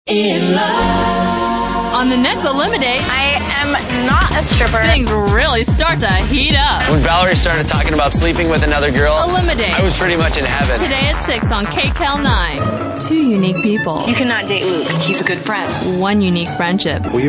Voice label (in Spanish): promos